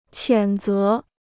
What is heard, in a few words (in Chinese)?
"谴责", Chinese